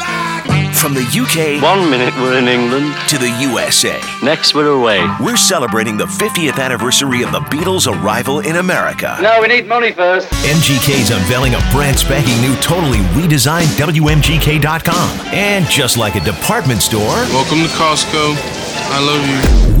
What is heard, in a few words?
radio men classic rock; radio imaging